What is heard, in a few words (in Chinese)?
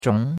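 "zhong2" Chinese; Cours de Chinois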